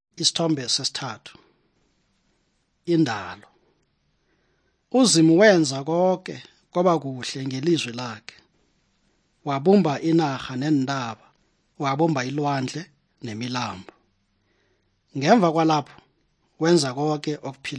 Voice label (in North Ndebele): good news ndebele southern, drama